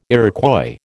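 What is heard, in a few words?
"Iroquois", American English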